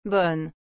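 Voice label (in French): "bonne", French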